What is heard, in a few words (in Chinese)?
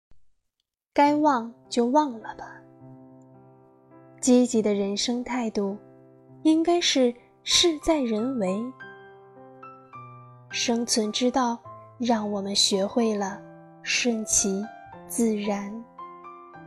情感; 该忘就忘了吧